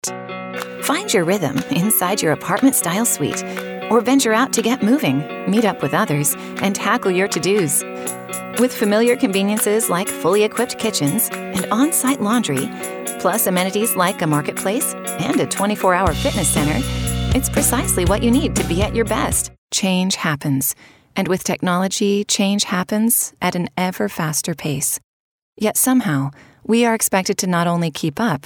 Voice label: Narration Laid Back

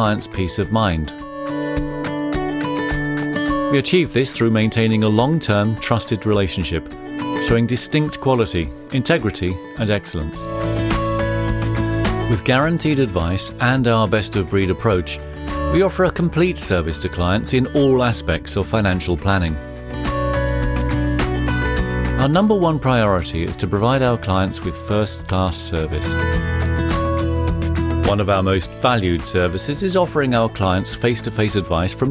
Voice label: corporate voice

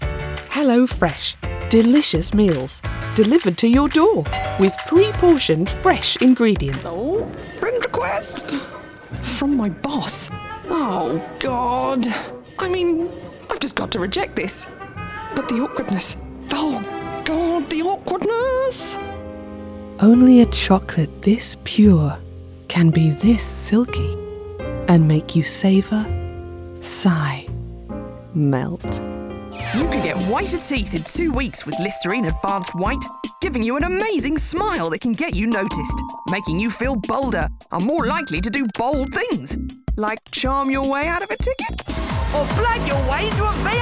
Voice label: Commercial Reel

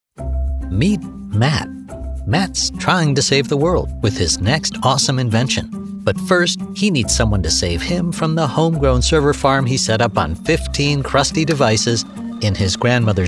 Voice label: Corp Explainer

